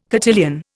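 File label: "cotillion", Indian English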